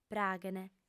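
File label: "praghent", female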